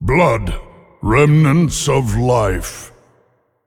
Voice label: Male, Trigger; Eternal One